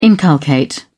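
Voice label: "inculcate", English, British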